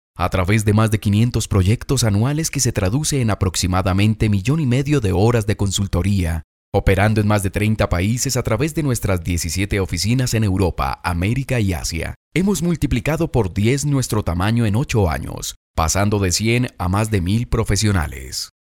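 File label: locutor colombia colombian voiceover, male; Locutor colombiano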